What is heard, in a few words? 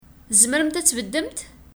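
Kabyle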